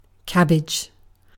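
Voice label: "cabbage", British English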